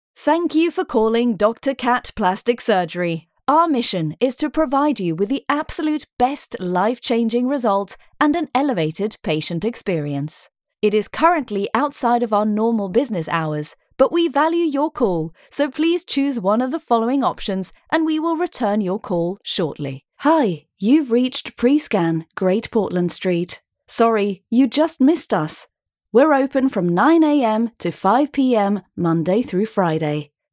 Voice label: IVR Automatic Phone Messages